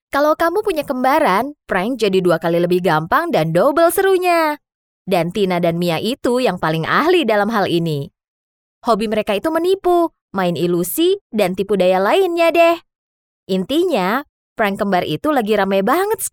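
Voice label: Indonesian, female, casual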